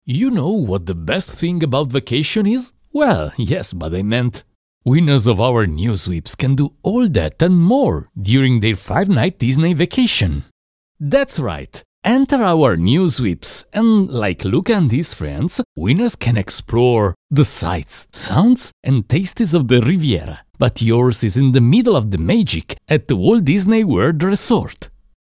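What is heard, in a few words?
Italian, English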